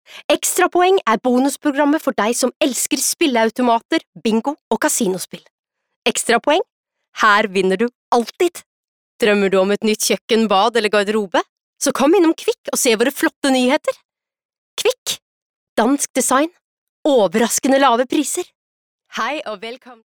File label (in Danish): Speaktest norsk